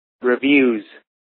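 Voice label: "reviews", US English; pronunciations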